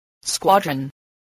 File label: "squadron", voice